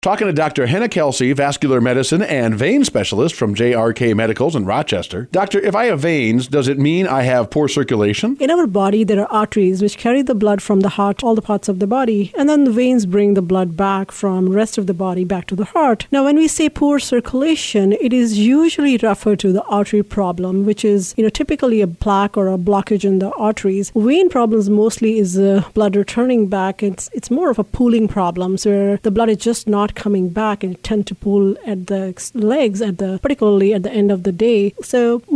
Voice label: INTERVIEW; JRK Medicals